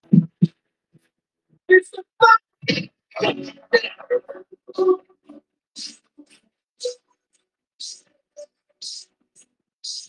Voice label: voice message